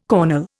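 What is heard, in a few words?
"cornel", Indian English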